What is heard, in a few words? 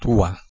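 "tuaH" Proto-Indo-European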